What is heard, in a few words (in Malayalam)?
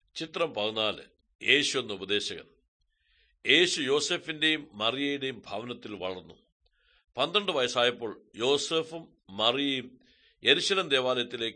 good news malayalam, drama